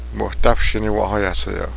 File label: sagehens, Cheyenne